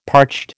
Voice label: "parched", American

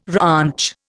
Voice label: "ranch", Indian

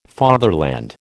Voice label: "fatherland", American

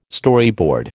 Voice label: "Storyboard", American

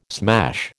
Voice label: "smash", American

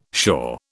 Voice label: "sure", British

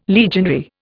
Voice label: "legionary", Indian

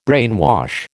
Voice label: "brainwash", American

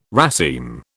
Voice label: "raceme", British

